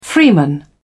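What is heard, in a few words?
"freeman", British English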